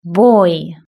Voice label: "boy", British English